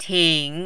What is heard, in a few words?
"ting3", Mandarin